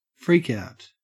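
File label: "freakout", Australian English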